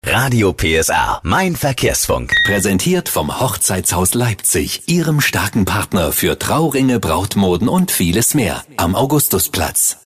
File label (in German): Radio Verkehr Mann, Radiowerbung; RADIO PSR Verkehr; Hochzeitshaus Leipzig